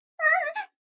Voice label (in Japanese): 女の子台詞